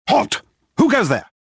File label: suspicious; XBOX